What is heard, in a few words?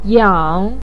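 "yang3" Chinese syllable, pinyin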